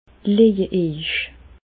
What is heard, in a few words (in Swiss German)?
leje, Bas Rhin; medecine; couchez vous